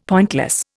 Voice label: "pointless", Indian; Dictionary